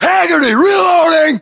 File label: inform reloading, US